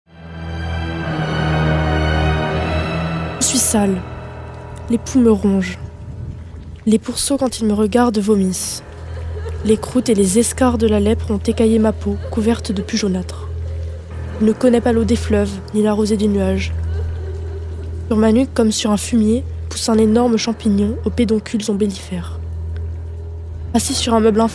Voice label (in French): lit à voix haute Les chants de Maldoror; lecture; avec ambience; du cote de chez jules